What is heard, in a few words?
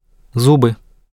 phonetics voice, russian; Pronunciation Rules & Voicing